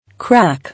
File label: "Crack", English